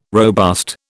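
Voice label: "robust", British English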